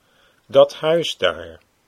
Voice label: dat huis daar, Dutch